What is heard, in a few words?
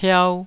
"piau2", Taiwanese